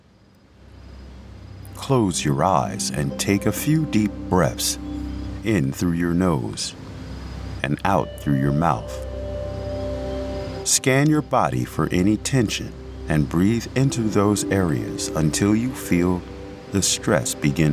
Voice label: meditation